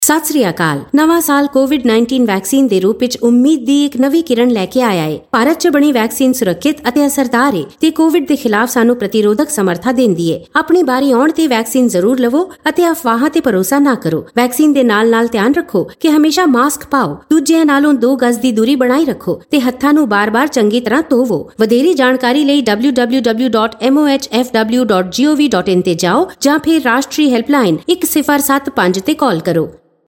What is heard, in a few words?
Punjabi Caller Tune